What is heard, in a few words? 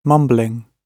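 "mumbling", British English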